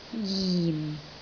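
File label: "jin4", Cantonese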